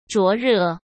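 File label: "灼热", Mandarin Chinese; chinese flashcards; hsk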